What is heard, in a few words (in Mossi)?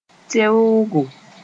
"teoogo", moore